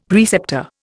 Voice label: "preceptor", Indian; Dictionary

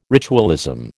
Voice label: "ritualism", American

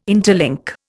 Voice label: "interlink", Indian

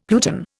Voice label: "pluton", Indian; Dictionary

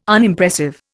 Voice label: "Unimpressive", Indian

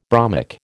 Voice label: "bromic", American

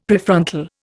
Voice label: "Prefrontal", Indian; Dictionary

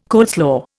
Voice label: "coleslaw", Indian

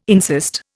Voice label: "insist", Indian

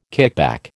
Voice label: "kickback", American

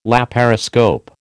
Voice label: "laparoscope", American

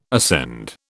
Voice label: "ascend", British

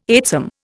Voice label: "eightsome", Indian

